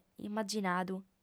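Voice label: "immaginadu", female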